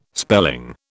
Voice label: "spelling", British